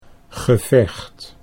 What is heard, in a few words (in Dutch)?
"gevecht", Dutch